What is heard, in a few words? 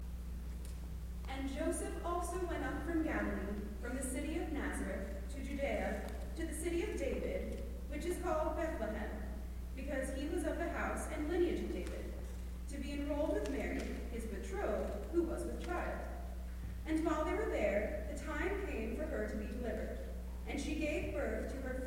Narration